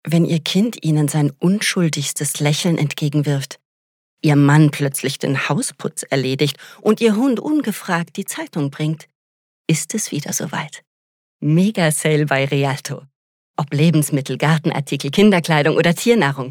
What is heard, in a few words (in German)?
Dynamisch